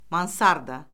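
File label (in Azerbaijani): "mansarda", Azerbaijani; Orfoqrafiya Lüğəti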